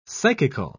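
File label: "psychical", British English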